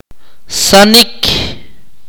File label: "ant", Akateko